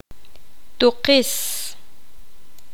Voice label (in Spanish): poqomam pierna